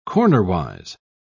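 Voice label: "cornerwise", British English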